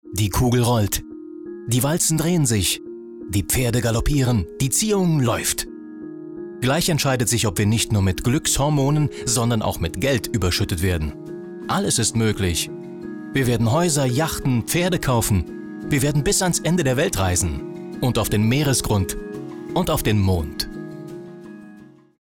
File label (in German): trailer roulette